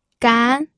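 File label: "gan", pinyin, second tone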